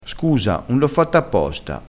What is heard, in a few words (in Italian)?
"apposta", crespinese